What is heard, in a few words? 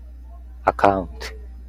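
"account", Japanese, male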